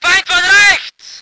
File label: voice ger vehicle